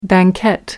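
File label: "banquette", British English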